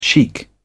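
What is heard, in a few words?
"chic", British English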